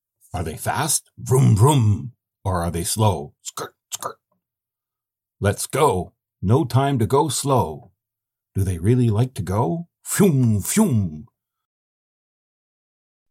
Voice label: Audiobook